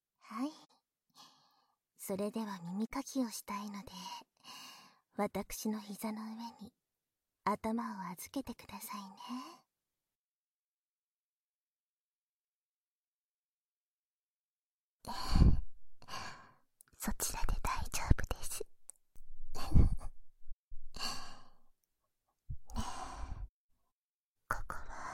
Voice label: エッチな耳かき+反対の耳で囁き（バイノーラルマイク 効果音なし）

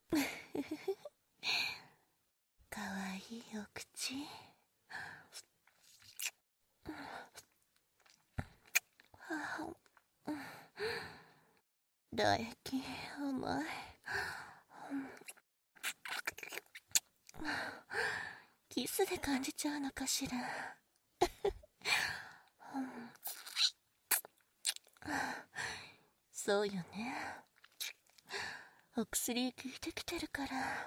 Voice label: 耳中スライム攻め（バイノーラルマイク 効果音なし）

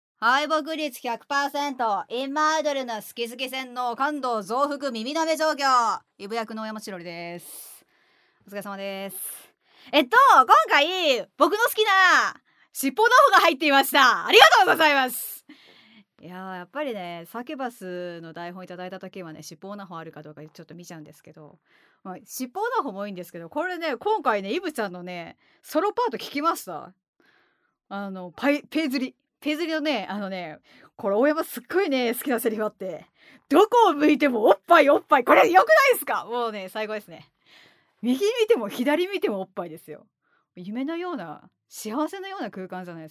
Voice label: フリートーク

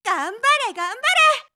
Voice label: がんばれがんばれ！, スポーツ少女

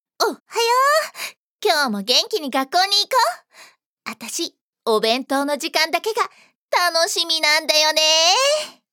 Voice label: ハイテンション, ハイテンションな女の子

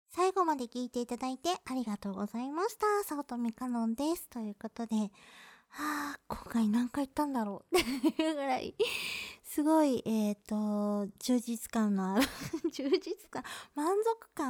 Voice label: フリートーク; オナニー実演音声

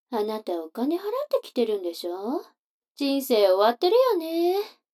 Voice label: 女の子 台詞

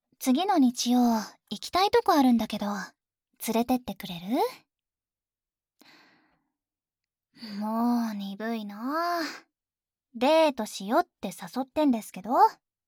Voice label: 恋人ボイス 女子高生

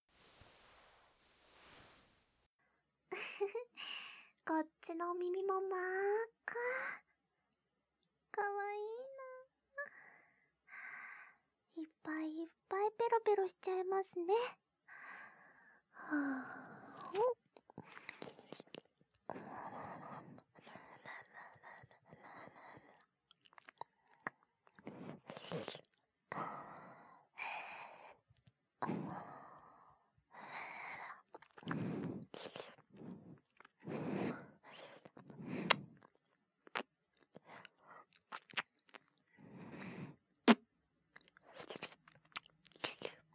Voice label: こっちのお耳もいっぱいペロペロしちゃいます(耳舐め・左のお耳), ASMR

